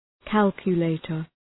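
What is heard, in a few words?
"calculator", English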